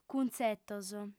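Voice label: "cuntzetos", female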